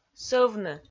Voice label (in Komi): "сӧвны", Komi